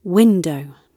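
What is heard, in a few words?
"window" spoken, British English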